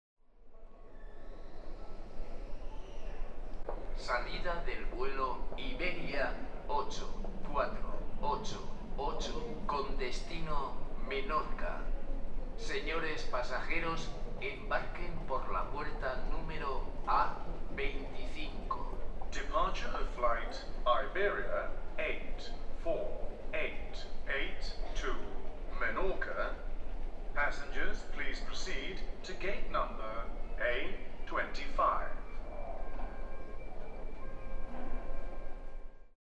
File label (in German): Flughafen Ansagen Mallorca